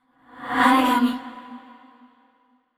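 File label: neutral, voice; yamiko; aragami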